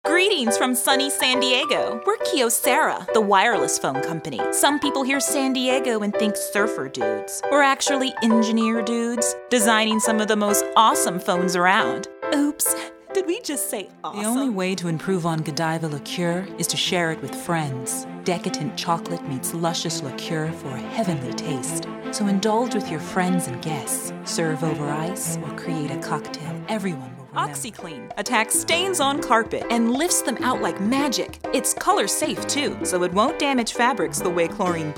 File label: commercial vo